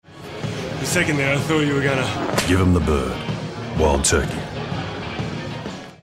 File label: TV Spots; Wild Turkey